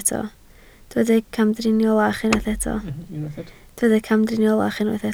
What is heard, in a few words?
"camdriniolach", Welsh